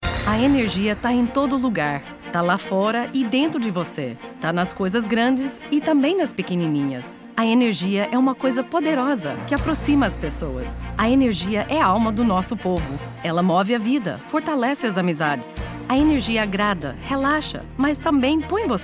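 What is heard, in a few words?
POR BR Narration, Brazilian Portuguese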